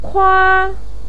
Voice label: "kua1", Chinese pinyin voice